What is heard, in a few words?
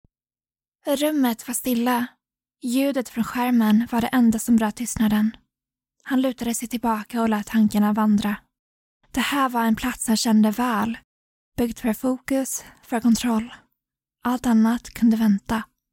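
Swedish Sweden female narrative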